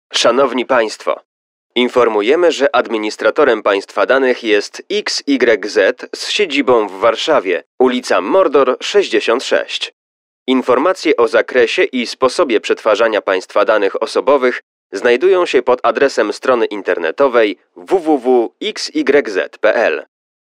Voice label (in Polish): ivr rodo